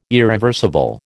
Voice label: "irreversible", American